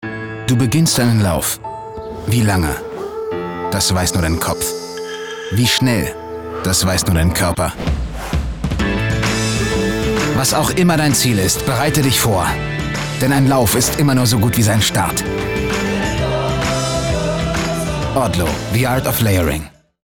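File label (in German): Werbung, deutsch Männer; sprecher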